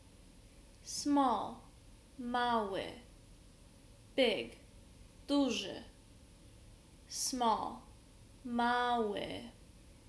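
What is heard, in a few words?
small big, Polish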